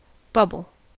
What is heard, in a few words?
"bubble", us